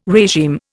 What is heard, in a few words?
"regime", Indian